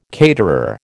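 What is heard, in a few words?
"caterer", American English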